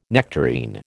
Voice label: "nectarine", American